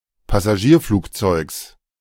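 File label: "Passagierflugzeugs", German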